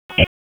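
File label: Raleigh Police Southwest District Dispatch; wake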